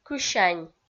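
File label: "кысянь", Komi-Zyrian, female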